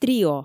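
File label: "трио", Komi, female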